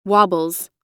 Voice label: wobbles, us